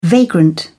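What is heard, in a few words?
"vagrant", British English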